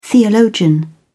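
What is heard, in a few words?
"theologian", British English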